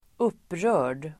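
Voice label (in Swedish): "upprörd", Swedish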